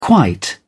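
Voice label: "quite", English, uk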